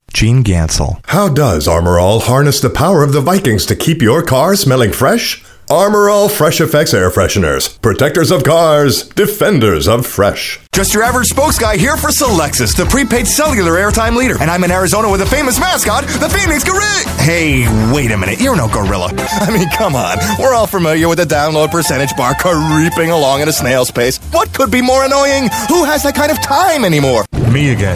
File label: Commercial, Male voices